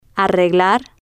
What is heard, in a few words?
"arreglar", Spanish